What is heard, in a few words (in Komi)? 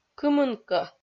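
"кымынкӧ", Komi, female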